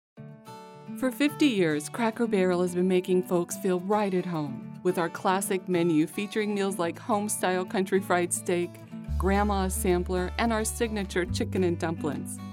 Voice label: commercial